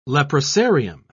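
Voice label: "leprosarium", British English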